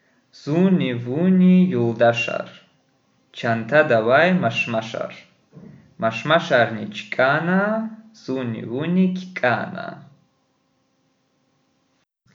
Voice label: children poem in Kuba Lezgic